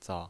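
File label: "zao0", Mandarin pinyin voice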